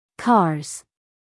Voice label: "cars", us female; everyone-can-use-english

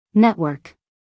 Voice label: "network", US female; everyone-can-use-english

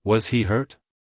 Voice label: "Was he hurt" US English, male; everyone-can-use-english

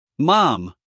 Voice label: "mom", US male; everyone-can-use-english

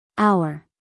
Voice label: "hour" US English, female; everyone-can-use-english

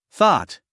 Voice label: "thought", us male

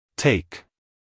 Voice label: "take" us male; everyone-can-use-english

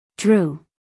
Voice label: "drew", US English, female

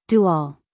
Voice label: "Do all" US English, female; everyone-can-use-english

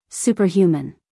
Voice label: "superhuman", us female; 1000 hours

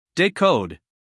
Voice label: "decode", US English, male; 1000 hours

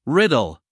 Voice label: "riddle", US male; 1000 hours

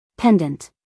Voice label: "pendant", US female; everyone-can-use-english

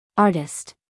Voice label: "artist", us female

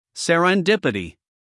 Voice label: "serendipity", us guy; everyone-can-use-english

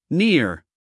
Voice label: "near", US male; everyone-can-use-english

